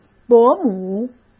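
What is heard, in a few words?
"伯母", Mandarin Chinese; chinese-flashcards; hsk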